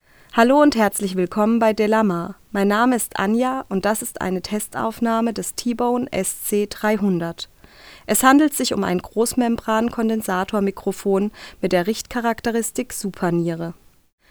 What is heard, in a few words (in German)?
SC 300 Sprache f; t.bone